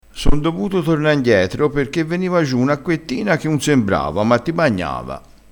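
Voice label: "acquettina", Italian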